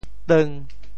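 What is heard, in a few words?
"teung5", Teochew; 祠堂 sêu têung; clan ancestral hall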